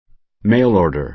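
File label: "mail order", American English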